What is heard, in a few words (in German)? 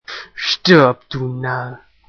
Narr